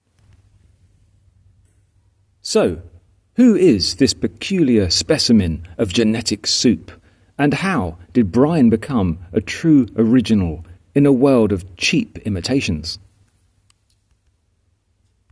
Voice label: voiceover